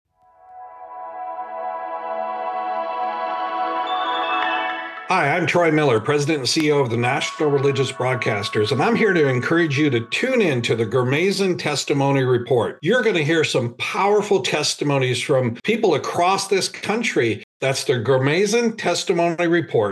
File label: 30 Second Commercial